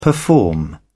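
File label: "perform", British English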